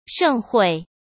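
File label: "盛会", Mandarin Chinese; chinese-flashcards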